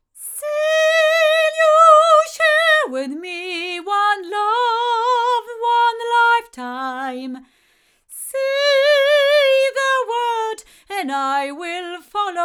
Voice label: Sontronics Orpheus cardioid female, microphones recordings